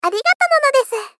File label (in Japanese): Moe Thanks Arigato nanodesu, moe loli girl; Rei Yumesaki